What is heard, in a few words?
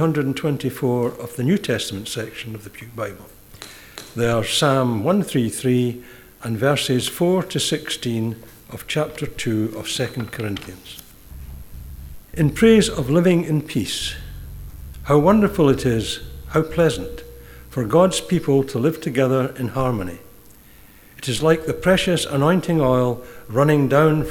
read; Psalm 133: 1 - 3 & 2 Corinthians 2: 14 - 16b